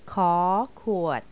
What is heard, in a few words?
"khuat", Thai